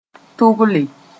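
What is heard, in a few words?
"tʋgle", Mooré; orientate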